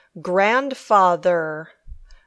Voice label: "grandfather", English